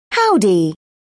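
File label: "howdy", British English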